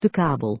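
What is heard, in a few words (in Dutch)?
"de kabel", Dutch; Natuur samenvatting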